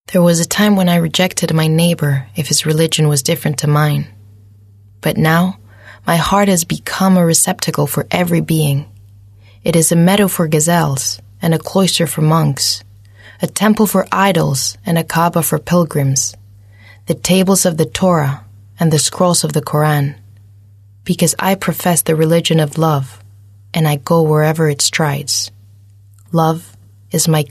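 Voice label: Spanish English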